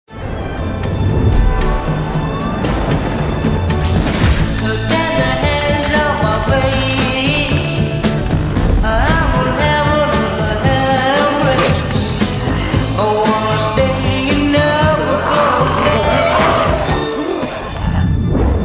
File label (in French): elegant, élégant, évènement, voix off publicité; DISNEY+